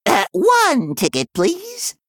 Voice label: Male Gnome Play